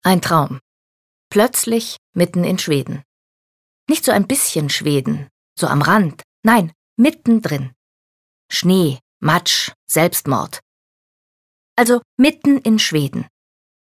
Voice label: Schauspiel Dynamisch

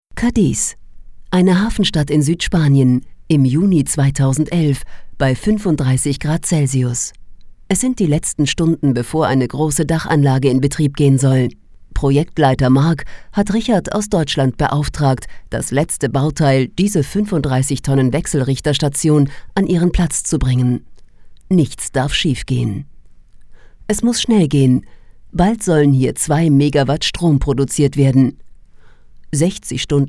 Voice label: Neutral; Fremdtake

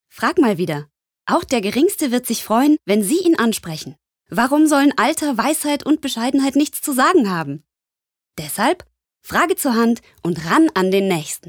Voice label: Werbung Freundlich

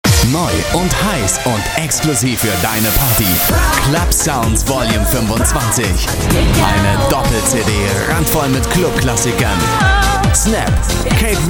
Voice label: Werbung Dynamisch

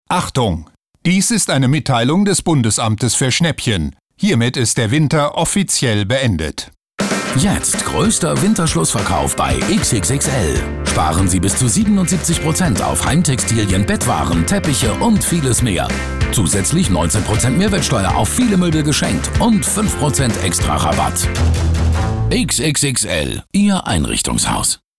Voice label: Werbung Dynamisch

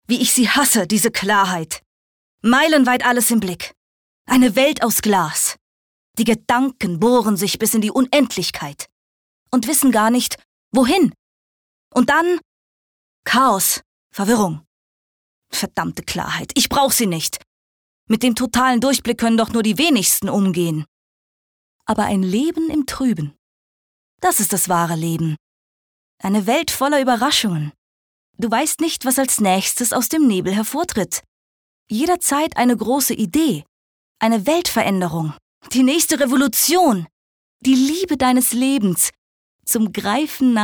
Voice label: Energisch; Klarheit

